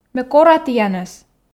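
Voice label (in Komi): ме кора тіянӧс, Komi, female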